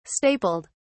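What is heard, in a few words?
"stapled", British English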